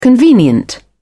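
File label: "convenient", British English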